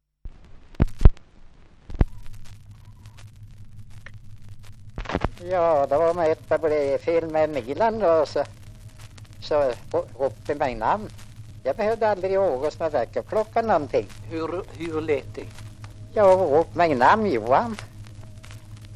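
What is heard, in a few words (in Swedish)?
Sunnemo Värmland, dialekter; svenska sagor; Folkminnen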